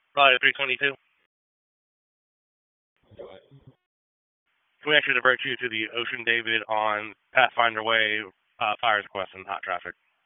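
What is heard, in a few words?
Police Dispatch; Raleigh Police Northeast District Disp; wake; p25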